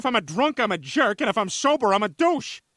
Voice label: drunk; fam guy